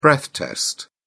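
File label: "breath test", British English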